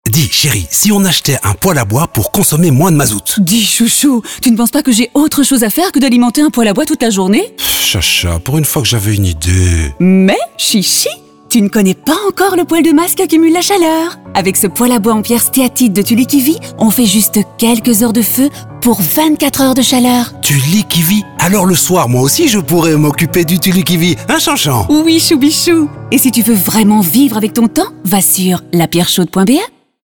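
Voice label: French Spot; Tulikivi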